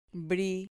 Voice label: "bri", Spanish

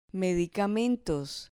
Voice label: "medicamentos", Spanish

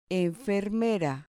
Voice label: "enfermera", Spanish

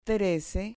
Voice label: "trece", Spanish

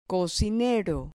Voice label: "cocinero", Spanish

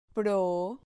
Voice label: "pro", Spanish syllable

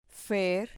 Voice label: "fer", Spanish syllable

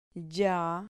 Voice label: "lla", Spanish syllable

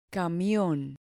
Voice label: "camion", Spanish

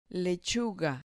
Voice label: "lechuga", Spanish

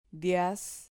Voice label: "dias", Spanish syllable

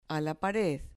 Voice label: "pared", Spanish

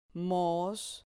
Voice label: "mos", Spanish syllable

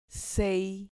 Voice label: "cei", Spanish

